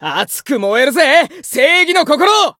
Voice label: variable chant, jp; roufs